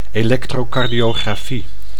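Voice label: "electrocardiografie", Dutch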